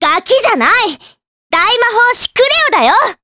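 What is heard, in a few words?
voice, Japanese; Cleo; kingsraid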